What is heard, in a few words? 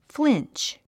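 "flinch", English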